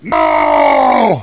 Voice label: heavy jeers; tf2